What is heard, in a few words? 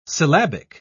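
"syllabic", British English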